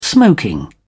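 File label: "smoking", British English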